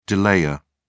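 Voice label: "delayer", British English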